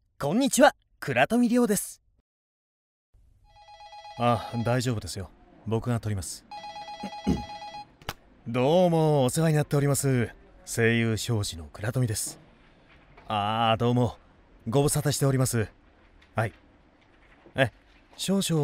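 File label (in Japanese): アニメボイスサンプル, 声優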